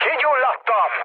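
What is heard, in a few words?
Hungarian on fire mood low, speech; War Thunder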